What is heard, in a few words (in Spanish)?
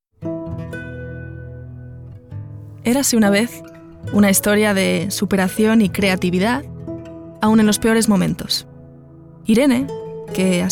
ESP NARRATIVO